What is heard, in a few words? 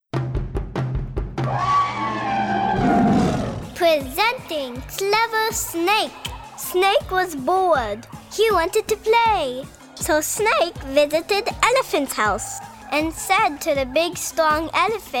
Children's Stories VO